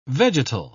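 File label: "vegetal", British English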